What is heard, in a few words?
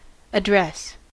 "address", us